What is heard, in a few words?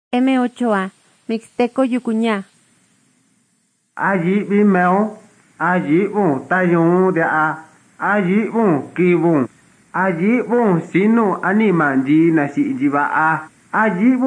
mixtec diagnostic spanish mexico